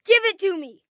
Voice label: Give It To Me, 1 boy, 9 yrs; Talking Sound Effects; VoiceBox; Twistedtracks

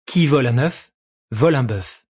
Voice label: French Who Steals, Male

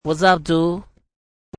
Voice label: Waz Up Dood, A male voice; Talking

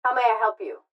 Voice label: Fast Food Drivethru Male How May I Help You; Pa Announcement; Blastwave

